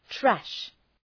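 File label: "trash", English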